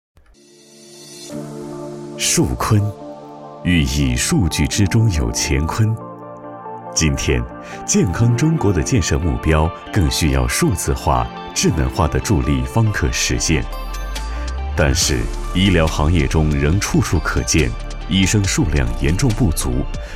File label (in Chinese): 男 科技; 数坤科技